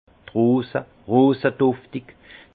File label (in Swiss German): "rose", alsace; vin